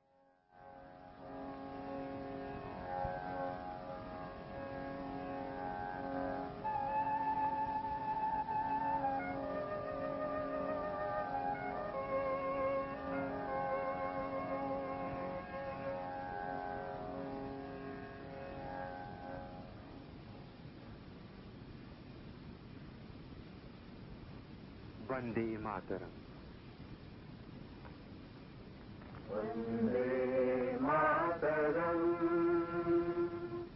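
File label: AIR Imphal 4775 kHz sign-on, India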